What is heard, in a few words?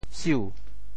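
"siu2", Teochew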